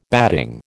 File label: "batting", American